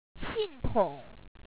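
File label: "xi4nto3ng", Chinese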